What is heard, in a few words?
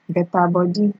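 "better body", Nigerian Pidgin, female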